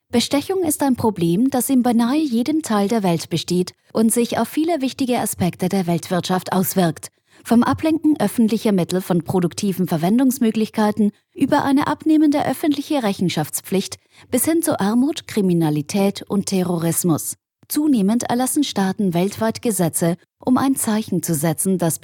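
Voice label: German elearning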